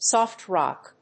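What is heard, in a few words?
"soft-rock", English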